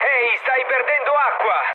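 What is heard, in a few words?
Italian damaged water mood med, speech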